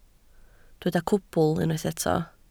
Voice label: "cwbl", Welsh

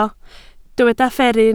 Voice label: "fferru", Welsh

